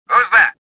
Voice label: suspicious; swamp